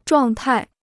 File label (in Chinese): "状态", Chinese